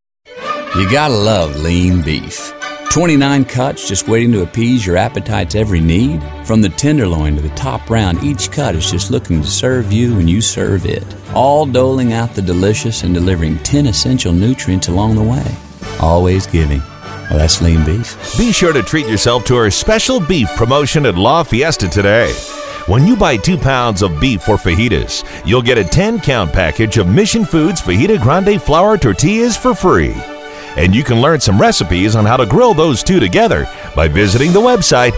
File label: ENGLISH SPOT; The Texas Beef Council Ad; Retail Radio Production